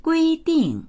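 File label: "gui1ding4", Mandarin Chinese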